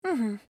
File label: Emote Positive